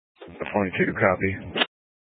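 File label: Sheriff Dispatch, scanner audio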